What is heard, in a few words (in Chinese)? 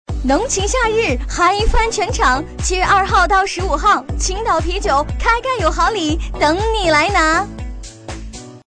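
女促销; 青岛啤酒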